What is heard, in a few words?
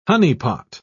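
"honeypot", British English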